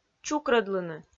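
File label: "чукрӧдлыны", Komi, female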